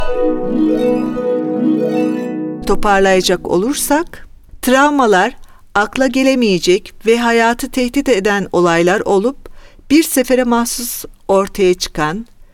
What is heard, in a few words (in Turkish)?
Türkçe; NAWA